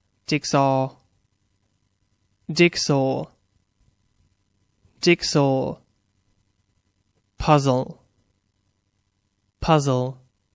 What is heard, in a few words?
"jigsaw", English; Toys